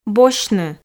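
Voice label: "босьтны", Komi, female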